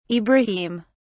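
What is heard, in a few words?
"ibrahim", British English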